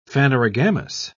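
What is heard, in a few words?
"phanerogamous", English, British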